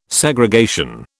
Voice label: "Segregation", British